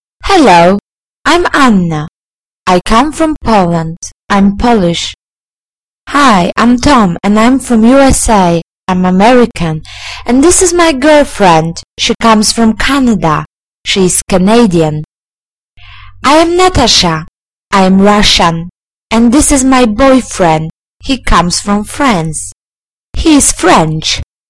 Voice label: reading